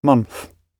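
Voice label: "month", British English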